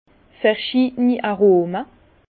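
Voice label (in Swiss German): "verschiideni", Alsace Bas Rhin; vin; arômes variés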